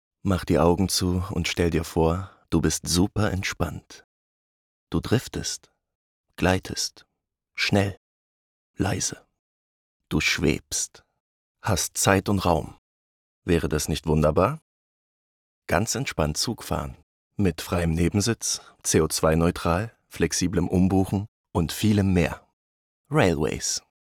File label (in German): Werbung